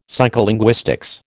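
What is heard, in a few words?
"psycholinguistics", American English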